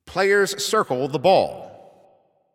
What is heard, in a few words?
Ball Placed in Start Ring, Announcer